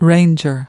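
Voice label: "ranger", English word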